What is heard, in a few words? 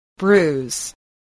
"bruise", spelling